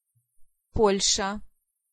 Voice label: "Poland", Pronunciation